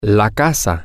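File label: Spanish, the house, male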